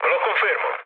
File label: player killed enemy mood med, speech it